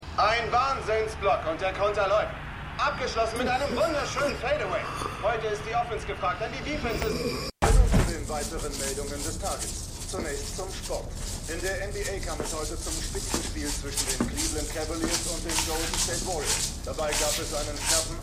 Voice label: Sportkommentator Nachrichtensprecher; Stimmproben; Black Lightning

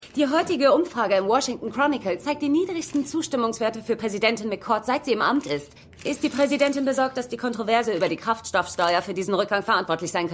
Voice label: Reporterin; Reporterin Keira Peters; Madam Secretary

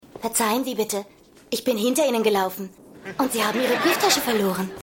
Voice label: Grosse Frau, Stimmproben; Seinfeld

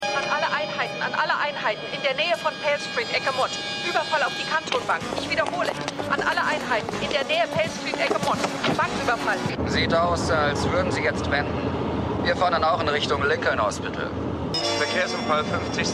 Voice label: Funk, Stimmproben; Cagney Lacey

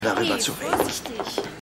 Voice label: Frau; Mission Erde

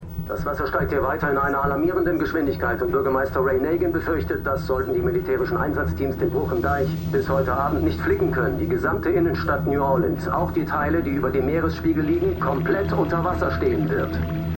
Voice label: Reporter; John Roberts; MEMORIAL HOSPITAL - DIE TAGE NACH HURRIKAN KATRINA (DF 2022); Five Days at Memorial

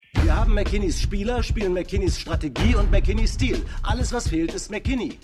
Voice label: Kommentator; Winning Time

